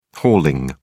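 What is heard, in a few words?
"hauling", English, uk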